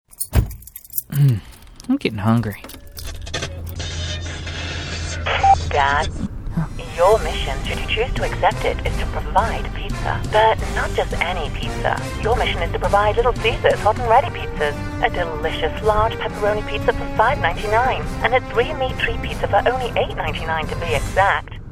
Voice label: ad